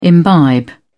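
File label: "imbibe", British English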